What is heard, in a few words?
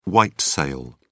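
"white sale", British English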